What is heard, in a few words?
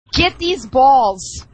female; get these balls